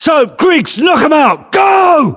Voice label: voiceovers, US; english